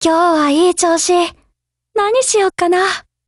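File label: HELLO Japanese, Voice; GF Alarm; Colt SCW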